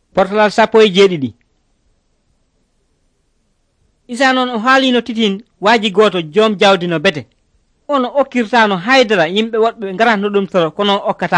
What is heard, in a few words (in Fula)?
pulaar fulacunda, drama; senegal; pulaar bible society of senegal nt drama